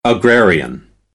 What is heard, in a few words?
"agrarian", American English; er - eər